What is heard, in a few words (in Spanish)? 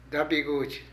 Yerno, Zapoteco de Petapa; Parentesco; Vocabulary